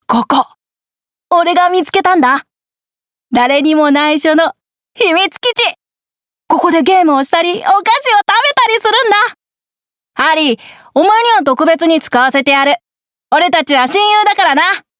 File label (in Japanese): 少年